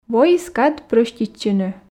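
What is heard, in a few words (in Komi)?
воис кад прӧститчыны, Komi, female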